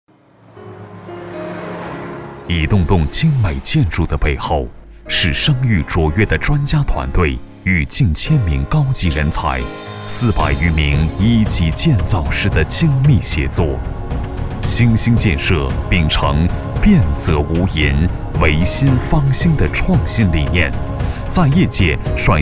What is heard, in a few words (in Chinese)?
男 企业宣传; 中国新兴建设